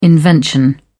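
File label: "invention", British English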